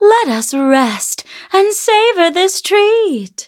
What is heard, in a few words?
level up, en; cookie run